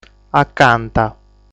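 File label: "Acanta", Sardinian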